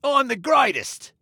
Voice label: Taunt Brag, Male

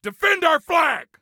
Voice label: Flag Defend, Soldier Male; Soldier Male 03

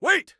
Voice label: Team Wait, Soldier Male

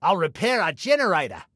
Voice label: Self Repair Generator, Male; Male 02

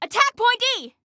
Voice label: ATTACK, Female; T2 Female 01

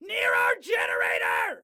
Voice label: Warn Enemy Near Our Generator, Excited Guy

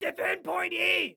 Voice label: defend, Excited Guy

